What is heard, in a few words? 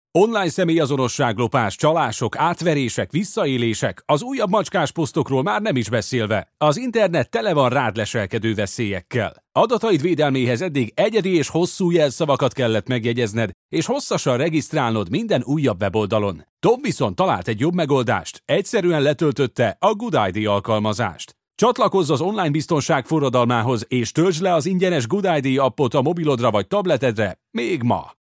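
Hungarian, male, ecommerce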